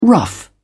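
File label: "rough", British English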